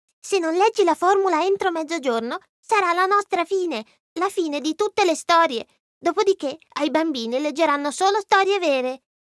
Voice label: ITA Character children

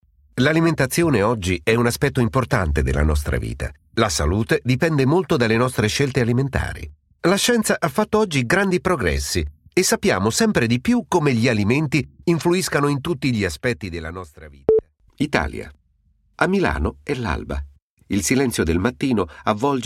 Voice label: overvoice dub

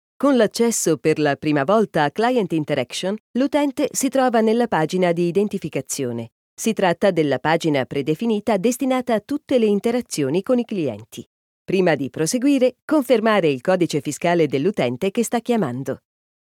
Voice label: ITA E-Learning

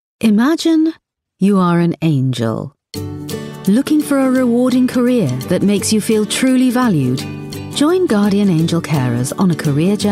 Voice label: UK female Corporate

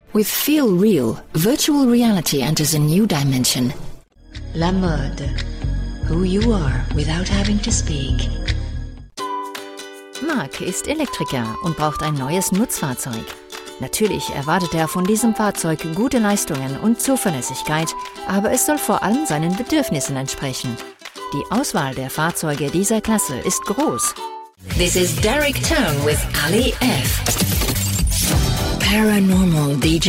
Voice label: Multilingual MEDLEY

